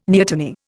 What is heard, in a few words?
"neoteny", Indian